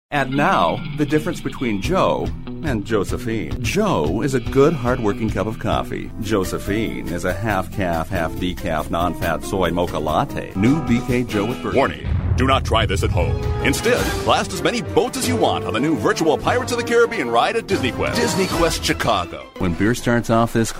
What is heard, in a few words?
Commercials vo